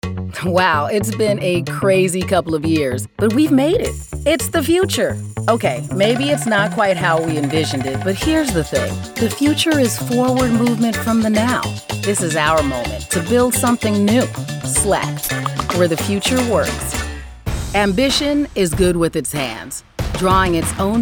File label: female commercial